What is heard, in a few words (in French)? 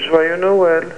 francais, voeux